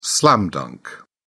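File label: "slam-dunk", British English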